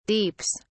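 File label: "deeps", British English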